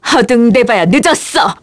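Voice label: Vox Skill, Korean; Priscilla; kingsraid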